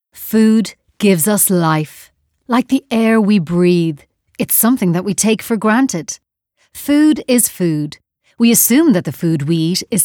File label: Narration